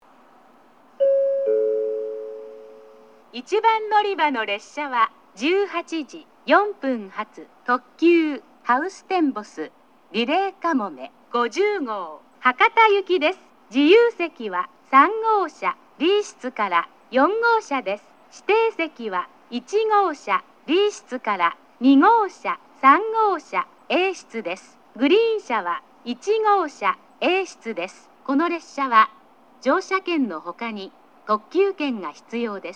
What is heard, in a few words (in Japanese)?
1番のりば停車中放送; ハウステンボス; 1番のりば停車中放送（ハウステンボス・リレーかもめ50号 博多行き）